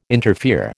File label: "interfere", American